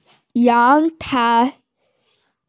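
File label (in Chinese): "yang2tai2", Mandarin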